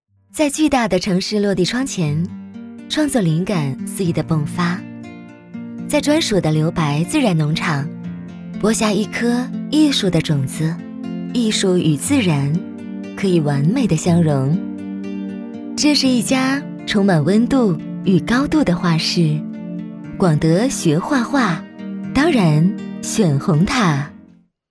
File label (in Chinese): 女 广告; 红塔画画